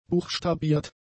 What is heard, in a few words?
"buchstabiert", German; adjectives